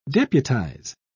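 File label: "deputise", English, British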